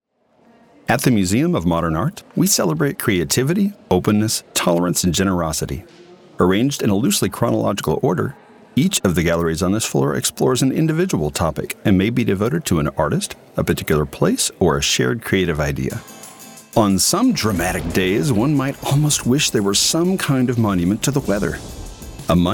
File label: Narration